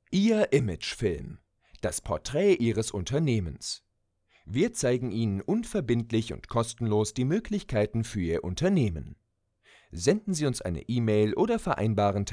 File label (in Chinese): 德语